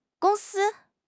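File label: "gong1 si1", Mandarin Chinese; HSK2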